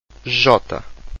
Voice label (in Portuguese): "jota", Portuguese